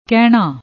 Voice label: "kehnna", Punjabi